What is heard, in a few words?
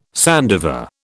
"sandiver", British